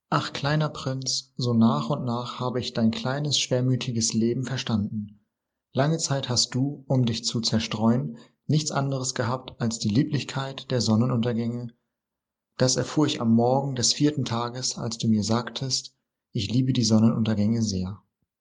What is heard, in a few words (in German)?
Deutsch Barmstedt